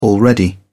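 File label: "already", British English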